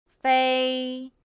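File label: "fēi", Chinese; pinyin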